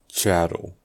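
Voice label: "chattel", American English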